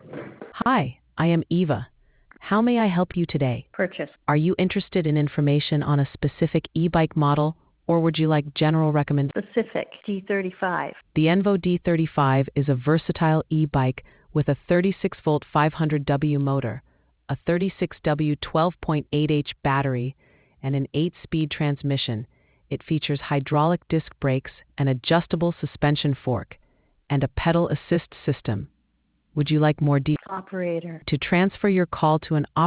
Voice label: conversation